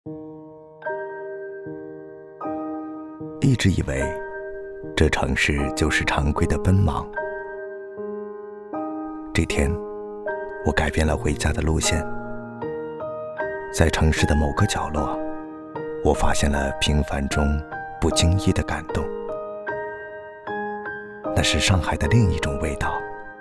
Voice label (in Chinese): 男 国 大音 独白广告; 上海老酒